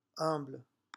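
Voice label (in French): "humble", French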